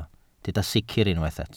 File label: "sicr", Welsh